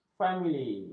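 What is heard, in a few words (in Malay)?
"famili", Malay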